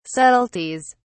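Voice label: "subtleties", British English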